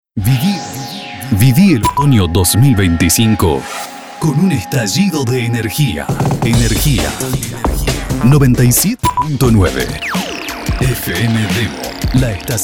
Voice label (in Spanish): INSTITUCIONAL; otono